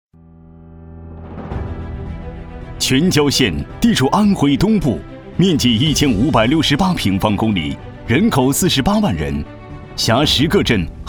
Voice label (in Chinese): 男 大气力度--全椒县; 优质大气